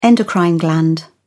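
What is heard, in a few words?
"endocrine gland", British English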